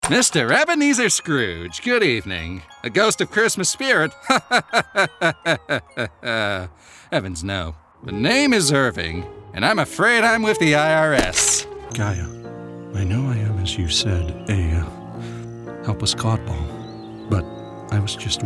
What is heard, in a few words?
animation